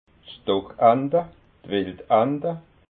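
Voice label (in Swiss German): "stockanta", Alsatian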